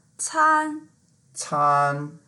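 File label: "can1", Mandarin Chinese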